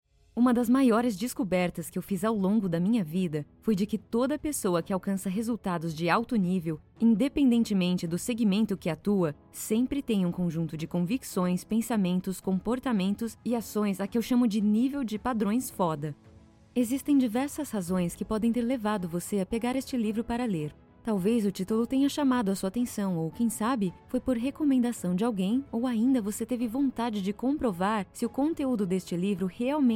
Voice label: Portuguese Brazilian audiobooks

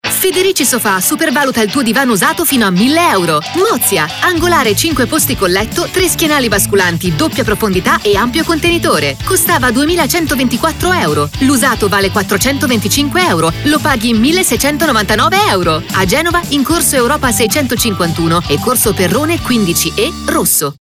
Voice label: italian announcements

